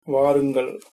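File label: welcome, tamil, male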